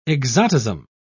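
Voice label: "exotism", British English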